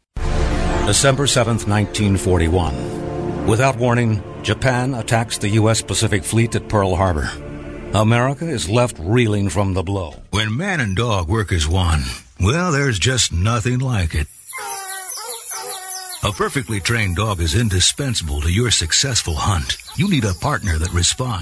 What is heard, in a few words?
NARR